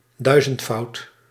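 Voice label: "duizendvoud", Dutch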